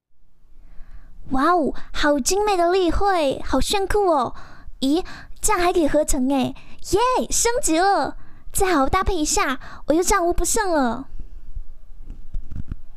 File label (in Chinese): 台湾腔